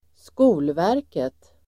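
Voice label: "Skolverket", Swedish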